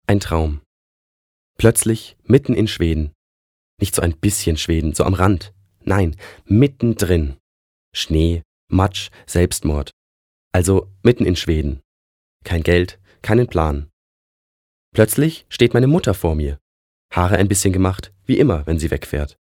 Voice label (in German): Schauspiel Neutral